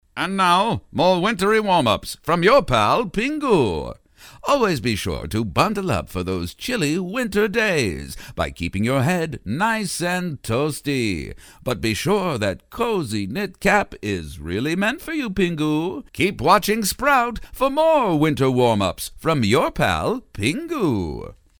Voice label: ANNOUNCER Old Style, cartoon; animation